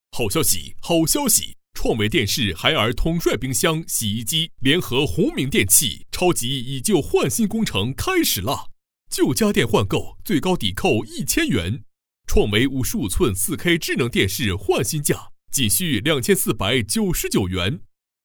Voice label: 促销; 宏明电器

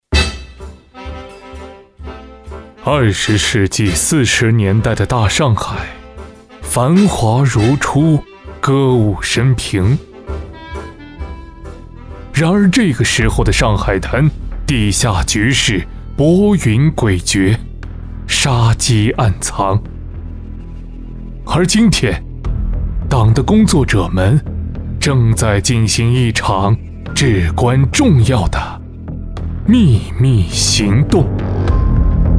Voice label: 民国旁白

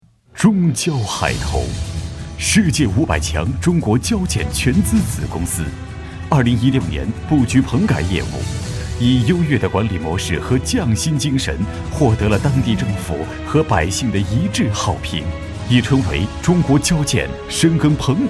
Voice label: 男, 中年, 普通话, 专题

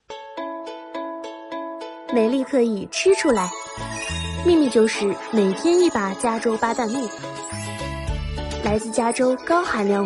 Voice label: 轻快女声

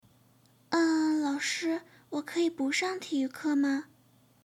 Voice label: 女童样音

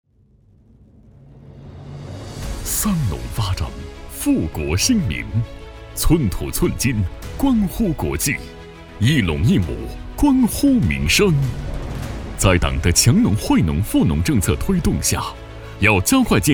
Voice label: 宣传片; 澜根农业控股宣传片

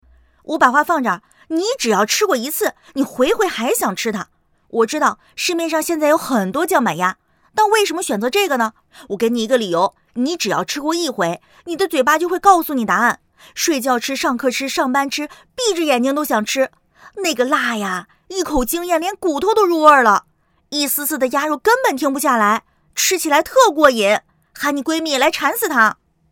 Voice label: 抖音带货素人激情

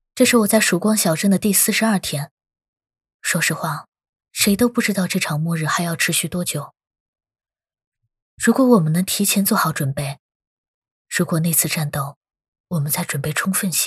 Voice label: 游戏信息流独白

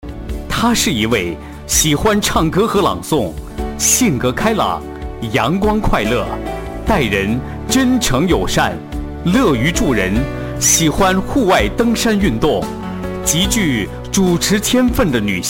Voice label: 主持人介绍及生日祝福语

